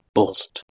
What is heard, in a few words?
"burst", Proto Germanic